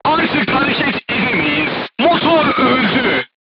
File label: voice message engine destroyed, crew tanks turkish